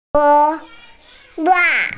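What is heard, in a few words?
"gōng zuò", Mandarin Chinese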